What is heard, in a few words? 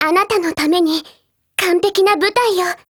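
WIN, Japanese; DesertEagle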